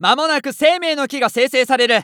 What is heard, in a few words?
Announce Tree Of Life Prep, JPN; Eternal Return